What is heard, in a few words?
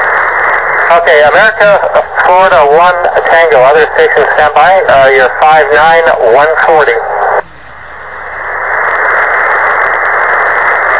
USB, 28MHz; ARRL 10M